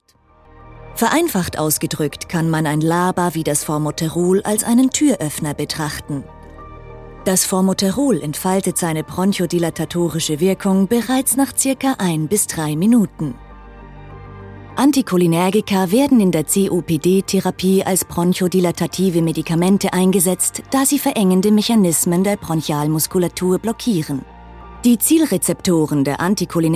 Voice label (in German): Neutral